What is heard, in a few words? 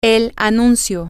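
the announcment, Spanish, female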